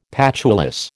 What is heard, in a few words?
"patulous", American